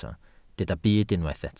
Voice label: "byd", Welsh